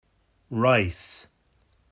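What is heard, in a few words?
"rice", English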